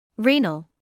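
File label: "renal" English, British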